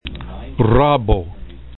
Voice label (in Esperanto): "rabo", Esperanto